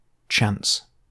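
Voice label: "chance", British English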